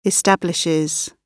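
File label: "establishes", British English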